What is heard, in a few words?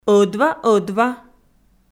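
"ӧдва-ӧдва", Komi, female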